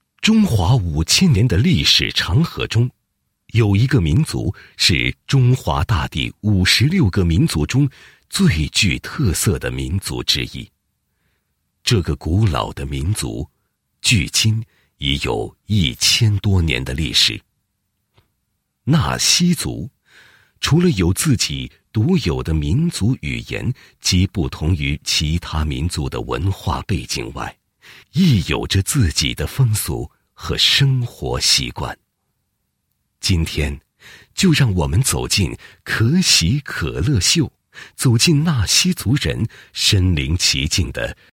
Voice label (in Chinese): 男 栏目包装; 可喜可乐秀 走近纳西族人